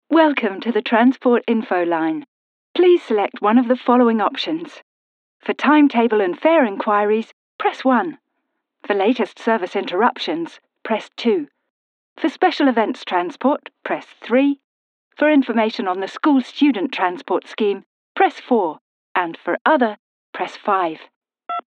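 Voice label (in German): Australian telefon, englisch; sprecher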